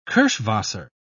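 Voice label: "kirschwasser", British English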